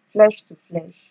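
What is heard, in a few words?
"flesh to flesh", Nigerian Pidgin, female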